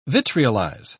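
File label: "vitriolize", British English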